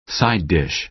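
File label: "side dish", American English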